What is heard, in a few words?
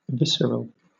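"visceral", British English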